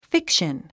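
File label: "fiction", English; fíkʃən フィクション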